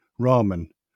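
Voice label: "ramen", English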